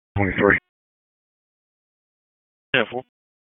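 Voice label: Sheriff's Office Dispatch; WSO DISP 1; wake; p25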